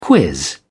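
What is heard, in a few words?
"quiz", English, uk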